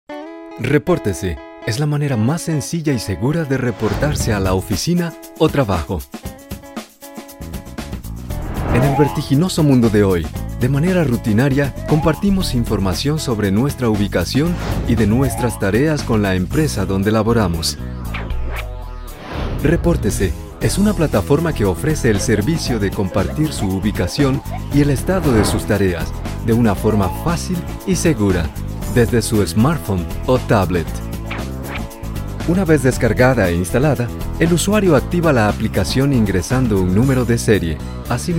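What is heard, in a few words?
Latin American Spanish, corporate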